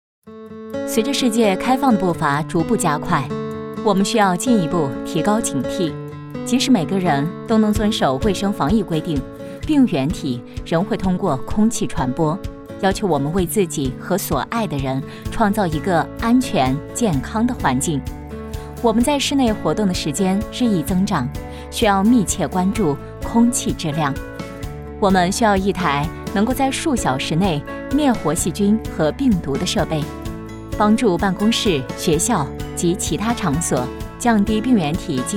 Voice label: 女 专题 空气净化机; 飞利浦

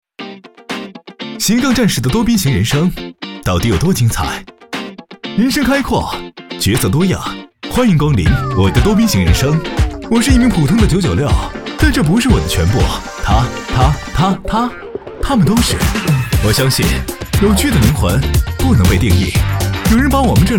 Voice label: 男 宣传片 赛博 动感活力

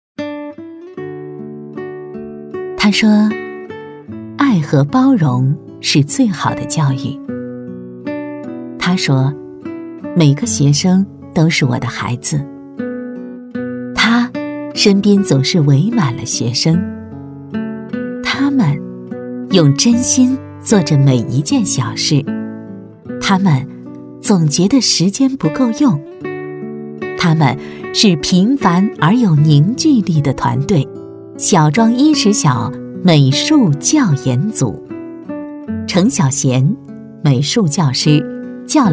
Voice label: 女 旁白; 晓庄

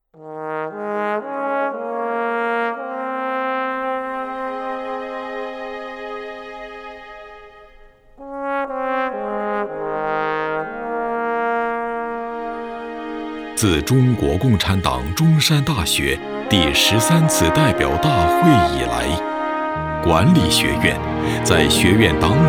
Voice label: 男 汇报片 党建类 回忆讲述 沉稳坚定

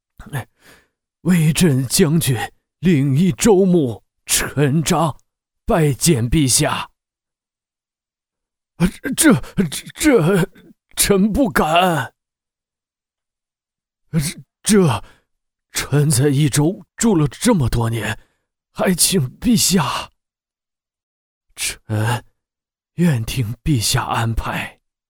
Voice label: 男 角色 舞台剧 小懦弱; 舞台剧刘璋胆

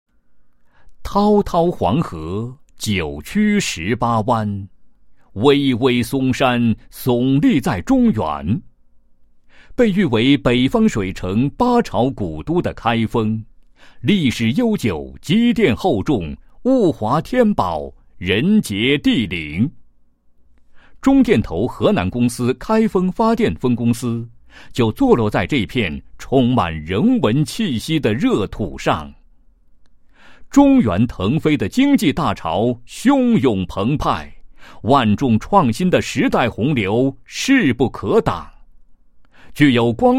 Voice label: 男 颁奖专题 颁奖词; 今晚-开封发电分公司劳模颁奖词

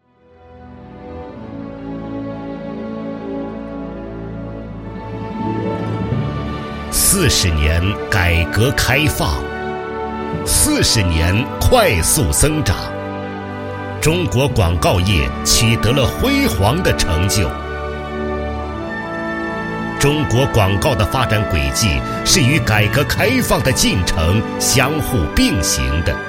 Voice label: 男,广告

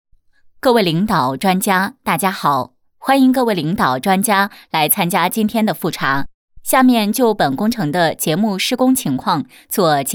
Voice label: 女-汇报; 建筑工程优质结构奖汇报

